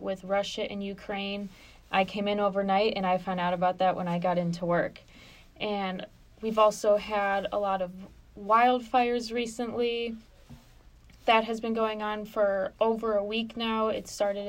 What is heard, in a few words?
Tv News; Wmbb